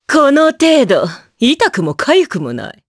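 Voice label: Vox Victory, Japanese; Morrah; kingsraid; voices heroes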